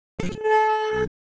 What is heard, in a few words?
Siwu Shape; ideophones